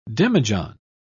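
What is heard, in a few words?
"demijohn", British English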